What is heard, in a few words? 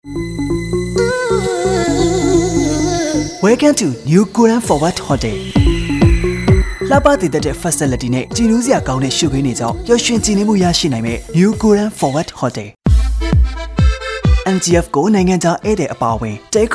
burmese male; Thar